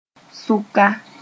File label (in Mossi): "sʋka", Moore; the middle of sth.centreamong